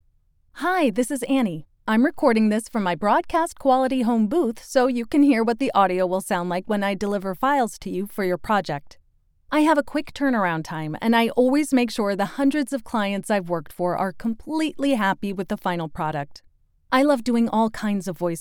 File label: studio sample